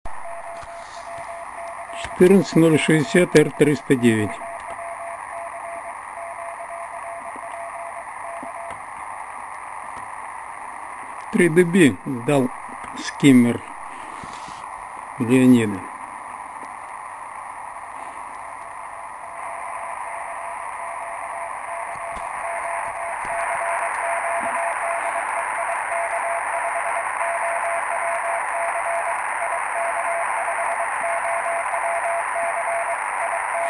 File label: 14060 CQ